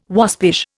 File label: "waspish", Indian